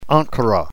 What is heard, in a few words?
"ankura", Greek